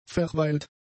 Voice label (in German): "verweilt", German; adjectives